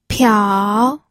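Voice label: "piao", Mandarin Chinese, third tone; pinyin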